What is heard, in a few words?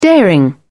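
"daring", British English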